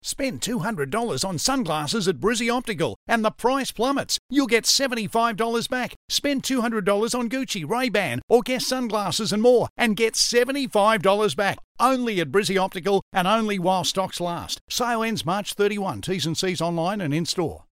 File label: Retail Friendly